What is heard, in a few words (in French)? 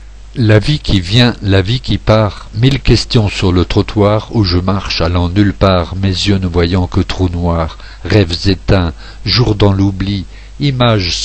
Voice slam; La vie qui vient la vie qui part